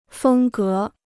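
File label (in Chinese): "风格" Mandarin Chinese; fēng gé; style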